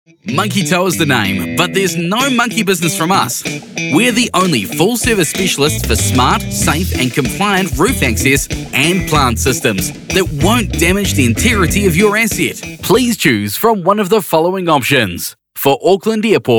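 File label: New Zealand PHONE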